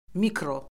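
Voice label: "mikro", Azerbaijani; Orfoqrafiya Lüğəti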